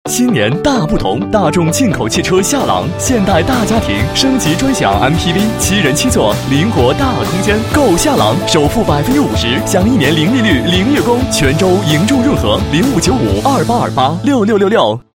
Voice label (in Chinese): 男 广告 汽车 轻松自然, 国语男声配音; 大众夏朗